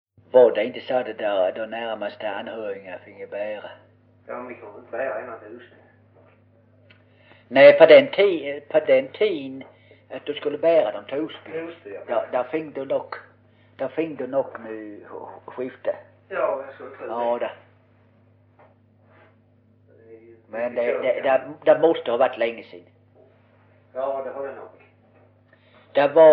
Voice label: Osby, dialekter; Folkminnen; Svenska sagor

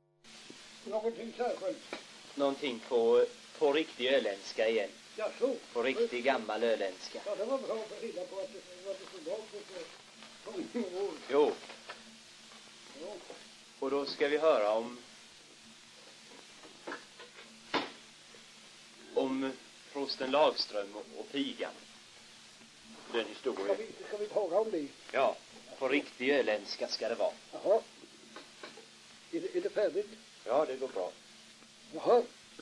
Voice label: dialekter; Folkminnen; Svenska sagor

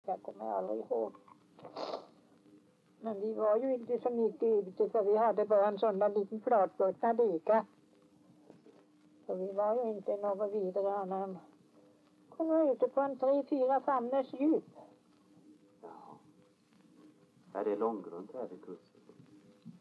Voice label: dialekter; Folkminnen; Svenska sagor

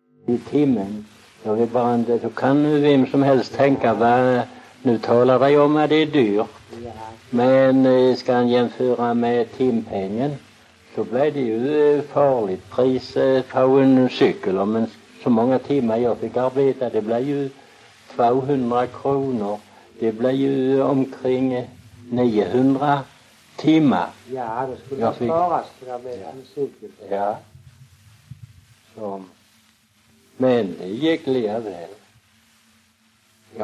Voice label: Väsby SK, dialekter; Folkminnen; Svenska sagor

